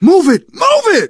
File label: emphatic go, survivor voice, manager